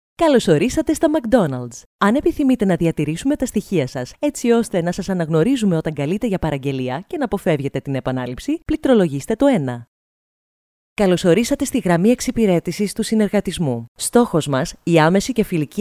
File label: greek ivr